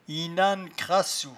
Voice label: "inhannecrassou", patois jura

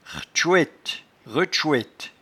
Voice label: "rtchoete", patois jura

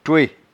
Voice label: "toet", patois jura

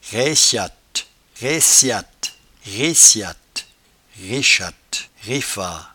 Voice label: "raichatte", patois jura

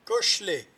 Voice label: "cochelet", patois jura

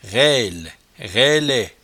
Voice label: "railerailet", patois jura

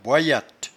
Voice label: "boiyatte", patois jura

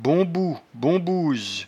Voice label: "bomboubombouse", patois jura

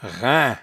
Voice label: "raim", patois jura

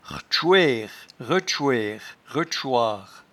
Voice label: "rtchoiere", patois jura

